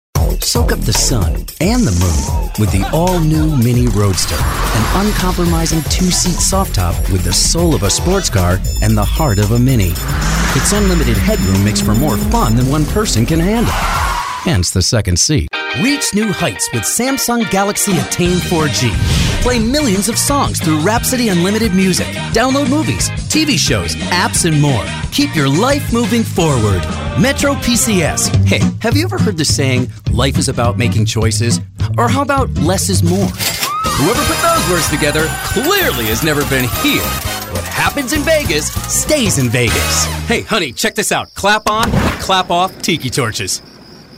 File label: commercial